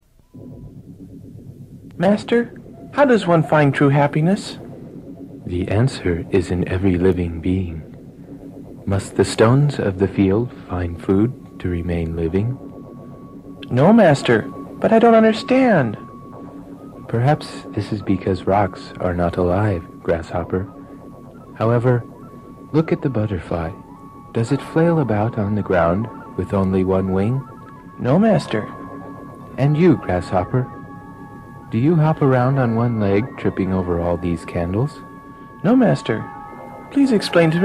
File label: promo stereo